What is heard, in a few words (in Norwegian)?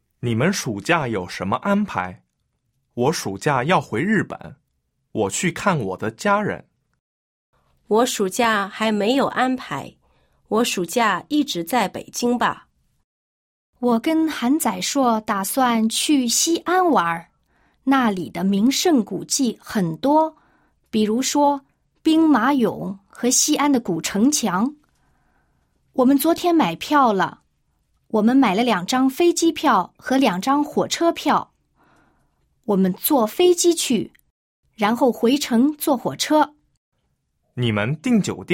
oppgavesett kinesisk